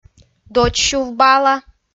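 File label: "додь сюв бала", Komi, female; kpv audio dict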